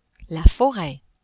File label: "foret", French; geographie